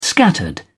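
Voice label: "scattered", British English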